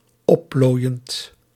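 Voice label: "opplooiend", Dutch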